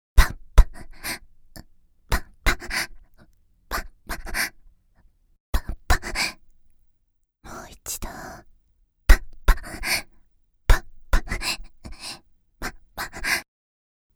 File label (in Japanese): レングスワイズフリックB(ささやき)<解説なし>; 実技パーツ（エクササイズ）; 第２回講座より（フリック）